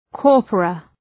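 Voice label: "corpora", English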